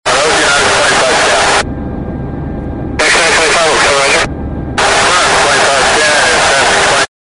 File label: radio distorted chatter